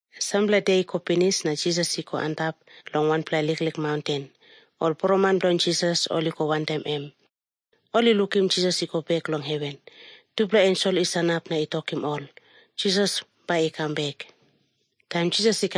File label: tok pisin; png nt drama; can god forgive me